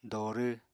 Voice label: "dorw", Apatani